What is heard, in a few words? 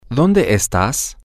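where are you informal, Spanish, male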